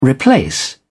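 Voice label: "replace", British English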